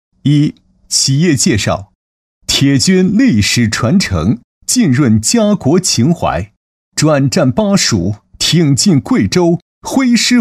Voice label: 男, 企业宣传, 激情有力; 年轻厚重; 年轻稳重; 中建二局

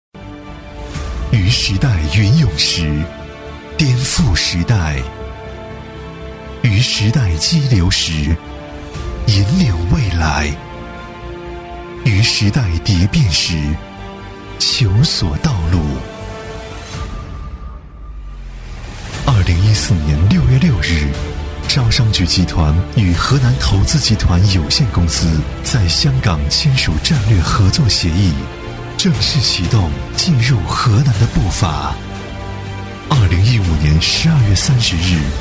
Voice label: 男 宣传片配音 大气感; 语言全能; 高端大气; 招商局地产宣传

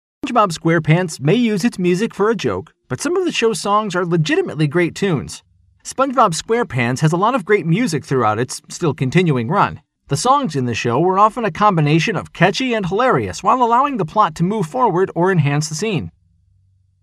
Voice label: 美式男声

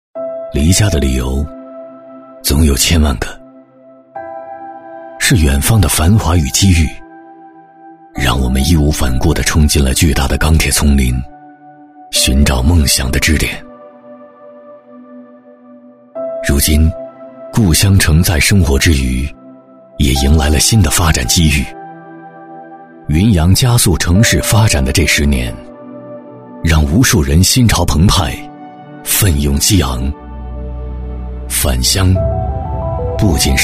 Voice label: 男 自然旁白 品质磁性; 宣传; 御海湾项目

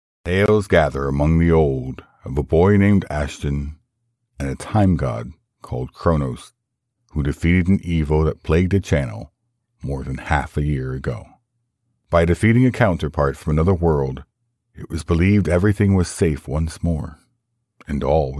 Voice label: 美式男声 轻松陈述类

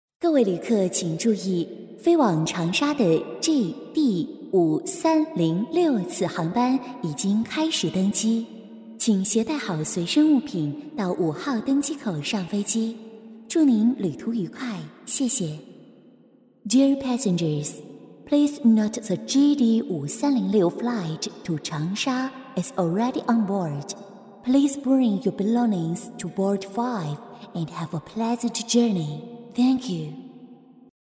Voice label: 女, 机场广播, 中英文广播

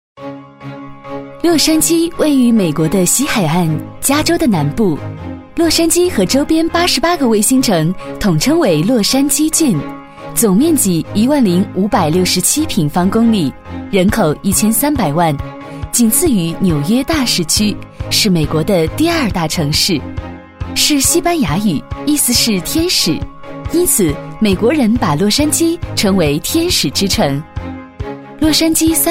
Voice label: 女 城市宣传 年轻高端; 洛杉矶; 大气浑厚

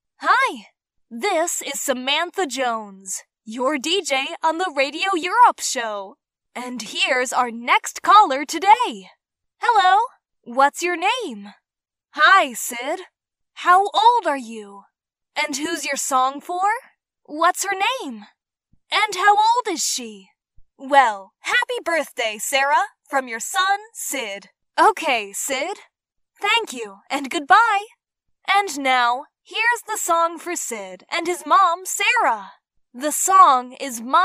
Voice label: 美式女声 对话自然风格

